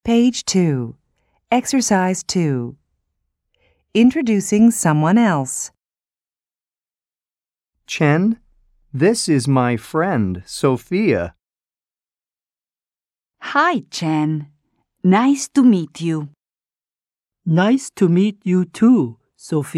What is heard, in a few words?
conversation; Four Corners 1